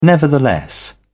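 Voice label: "nevertheless", British English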